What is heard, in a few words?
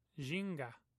"zhinga", Omaha